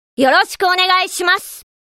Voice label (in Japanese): エモーション（丁寧な挨拶）; 「よろしくお願いします！」